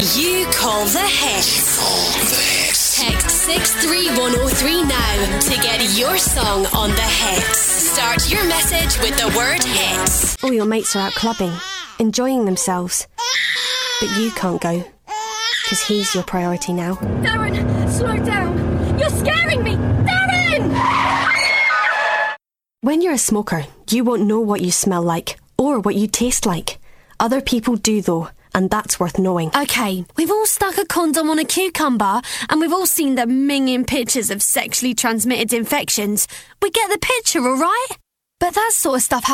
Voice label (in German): Eng UK Werbung Variabel